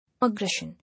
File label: "Aggression", English